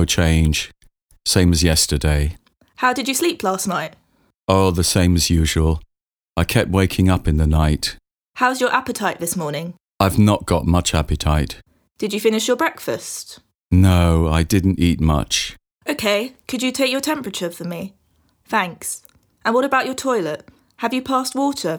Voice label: Conversation; Bedside Manner Beginner